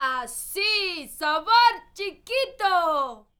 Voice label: MEX FEMALE, World Voices